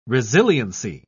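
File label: "resiliency", British English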